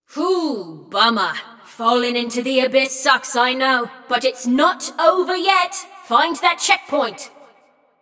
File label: Scrap Run Go To Checkpoint, Announcer; Flux